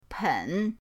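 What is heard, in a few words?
"pen", Mandarin pinyin, third tone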